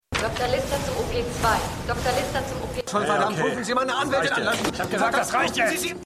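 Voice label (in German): Durchsage Wärter; Stimmproben; City On A Hill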